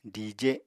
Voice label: "dije", Apatani; Household Items